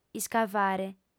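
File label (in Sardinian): "iscavare", Sardinian, female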